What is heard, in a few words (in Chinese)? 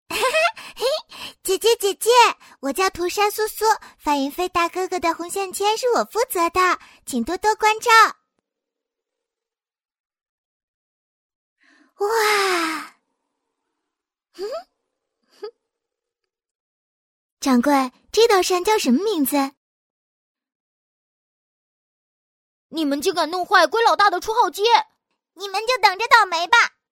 女 角色 动漫类声展 萝莉 正太 少女 御姐 女王 老年音